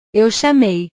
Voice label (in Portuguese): "eu chamei", portugues; chamar; portugues/verbos